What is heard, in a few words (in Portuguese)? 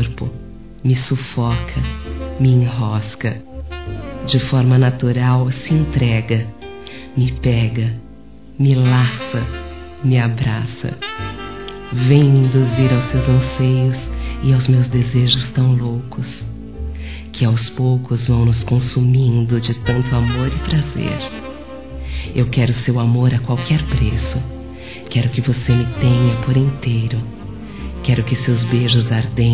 Me Leva, Telemensagens Sensuais fem